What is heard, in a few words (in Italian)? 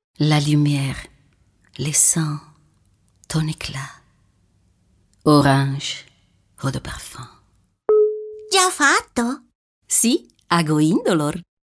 altri spot